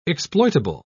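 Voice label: "exploitable", English, British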